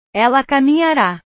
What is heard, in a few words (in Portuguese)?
"ela caminhara", portugues